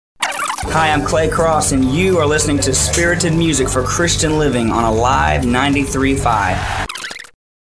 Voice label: Artist Liner